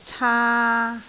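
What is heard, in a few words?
"caa3", Cantonese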